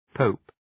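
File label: "pope", English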